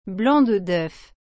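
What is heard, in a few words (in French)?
"blanc de d'œuf", French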